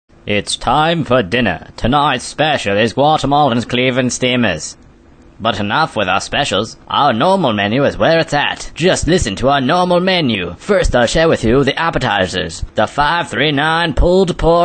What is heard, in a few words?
Commercial Restaurant; fake commercials